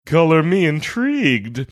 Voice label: saying color me intrigued funny